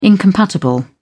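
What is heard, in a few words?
"incompatible", British English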